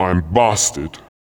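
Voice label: vocal male, Dance Vocals, spoken words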